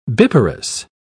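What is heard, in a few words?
"biparous", British English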